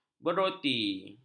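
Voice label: "beroti", Malay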